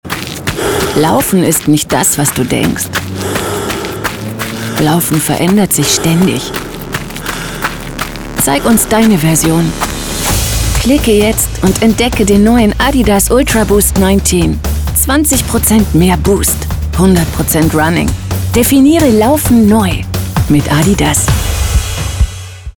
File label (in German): Spot; Adidas